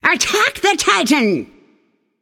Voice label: vox attack; smite; babayaga

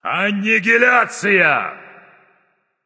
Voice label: kill streak, russian; caster

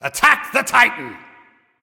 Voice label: Attack M; smite; camazotz

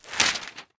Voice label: standup; smite; vamana

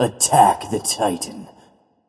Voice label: vox attack; smite; hou yi

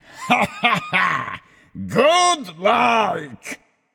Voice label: alert notify kill streak, japanese; caster

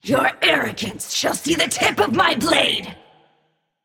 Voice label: taunt directed; smite; jingwei; athena

